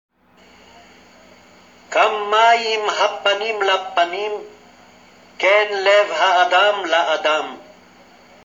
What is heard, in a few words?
reading in Hebrew